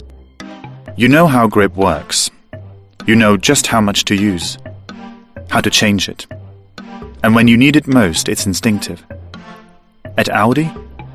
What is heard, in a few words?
English; Norwegian, Scandinavian, Male, 20s-30s